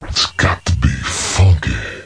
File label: its gots to be funky, male spoken word; VOCALS and SPOKEN WORD